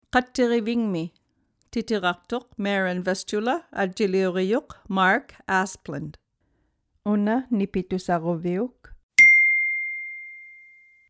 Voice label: audiobook; At the Fire Station